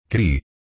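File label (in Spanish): "cri", Spanish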